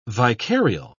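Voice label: "vicarial", British English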